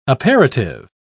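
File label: "aperitive", British English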